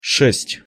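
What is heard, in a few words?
"sest", Russian pronunciation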